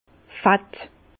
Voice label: "fett", Bas Rhin; vin; gras

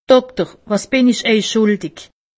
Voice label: "schuldig", alsace; medecine

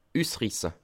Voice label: "usrisse", alsace

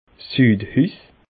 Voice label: "sudhus", alsace

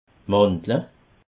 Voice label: "mandle", alsace

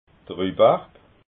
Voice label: "rubarb", alsace

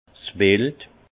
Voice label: "wild", alsace; vin; gibier

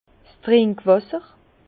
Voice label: "trinkwasser", Bas Rhin; vin; eau potable

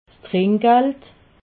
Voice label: "trinkgald", alsace

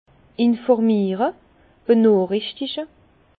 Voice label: "informiere", Bas Rhin; informer; vin

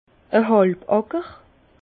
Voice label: "halbe acker", Bas Rhin; vin

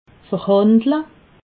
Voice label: "verhandle", alsace

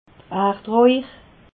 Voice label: "erdrauch", alsace

